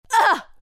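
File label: pain, Chinese; dota2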